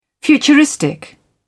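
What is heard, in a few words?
"futuristic", British English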